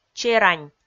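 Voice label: "черань", Komi, female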